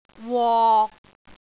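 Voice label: "wok6", Cantonese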